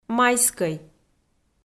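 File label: "майскӧй", Komi, female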